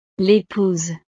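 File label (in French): "lepouse", French; la esposa; familia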